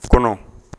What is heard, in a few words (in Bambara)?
"kɔnɔ", Bambara; corps; Mots Bambara